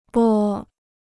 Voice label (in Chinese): "拨", Chinese